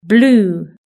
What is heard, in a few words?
"blue", british english